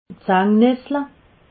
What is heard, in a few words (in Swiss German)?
"sangessle", alsace